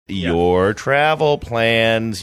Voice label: saying your travel plans sing songy